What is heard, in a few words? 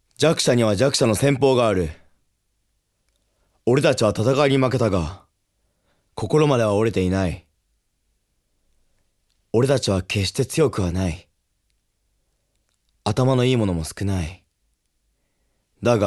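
Narration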